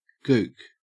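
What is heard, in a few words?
"gook", Australian English; pronunciations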